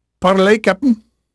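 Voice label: Spanish male, voice; Pirates Of The Caribbean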